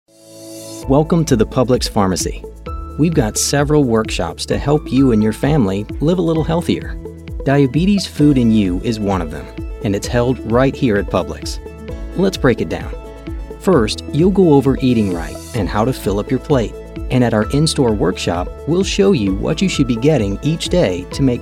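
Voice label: English US, male, corporate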